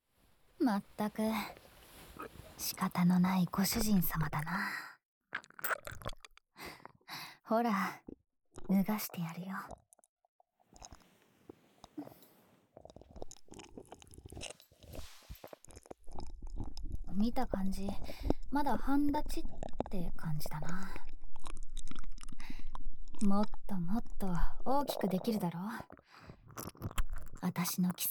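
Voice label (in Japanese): 耳舐めされながら黒エルフメイドに全肯定ご奉仕Hされる音声; ムルル（黒エルフメイド）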